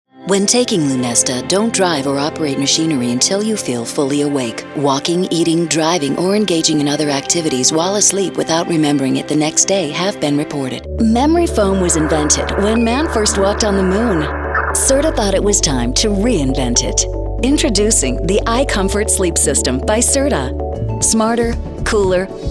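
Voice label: commercial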